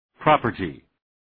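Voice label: "property", English word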